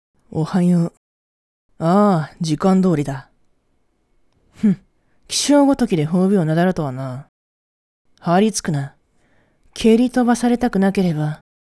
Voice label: 無表情な冷酷男上司のおはよう; リニールハーフアニバーサリー記念おはようボイス演じ分け8+2種

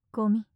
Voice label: "ゴミ" 近 左, ASMR; マゾオスのあなたに敗北射精の快楽を叩き込む音声

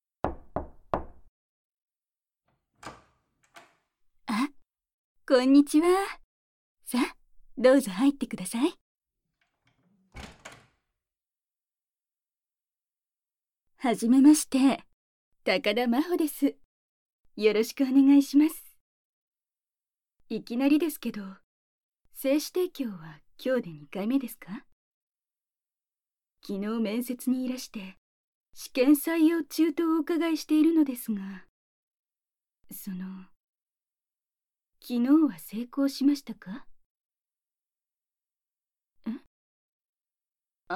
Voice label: 催眠誘導; 催眠初級

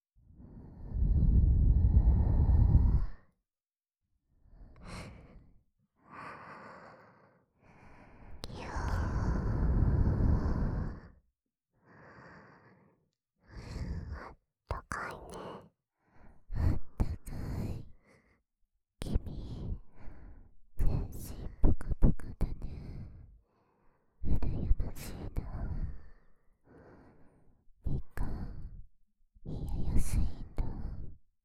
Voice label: 囁き全身愛撫。耳ふー耳舐め。, ASMR

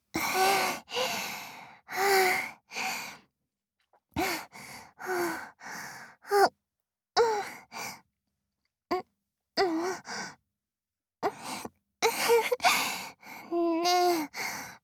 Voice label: Whisper むすめ

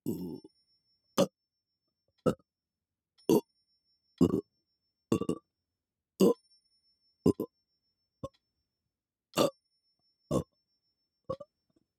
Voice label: 右耳 3拍子 「お」 メトロノーム; ゲップ; 月賦村レポート作成資料〜連続ゲップ真穂の練習〜

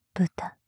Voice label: "豚"・極近・左; マゾオスのあなたに敗北射精の快楽を叩き込む音声

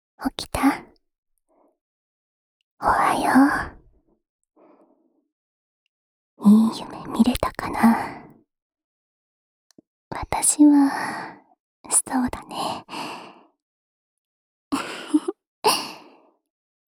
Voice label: スマホ用目覚ましボイス; SE有り

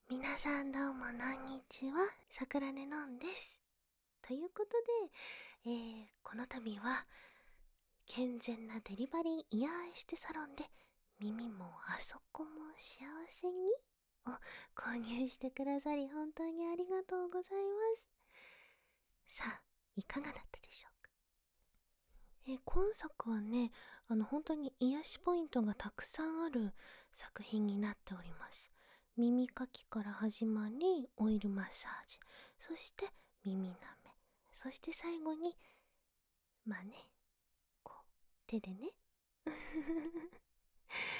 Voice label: まったりフリートーク

